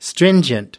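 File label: "stringent", American English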